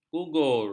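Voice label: "gugur", Malay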